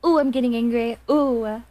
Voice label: angry

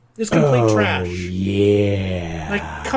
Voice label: ohhhhh yeahh

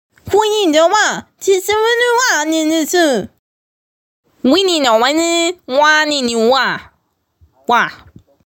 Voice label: gibberish

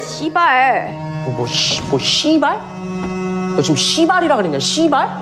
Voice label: "shibal" Korean

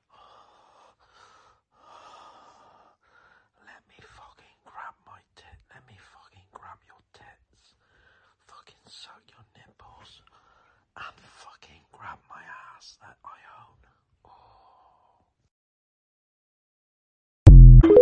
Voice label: voice note

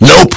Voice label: nope very loud

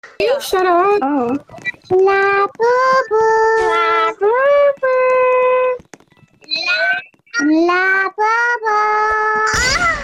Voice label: ahhh

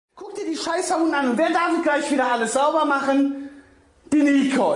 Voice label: deutsch

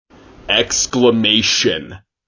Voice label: exclaimation

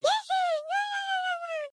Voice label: mumbling

